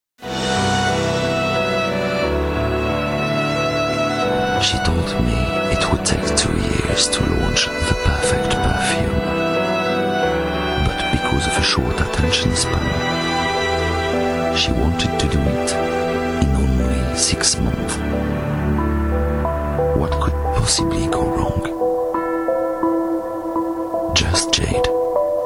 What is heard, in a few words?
promo; Living TV Just Jade; en Anglais